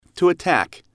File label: "to attack", English